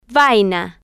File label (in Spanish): "vaina", Spanish